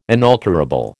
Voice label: "inalterable", American